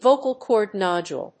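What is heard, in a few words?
"vocal cord nodule", English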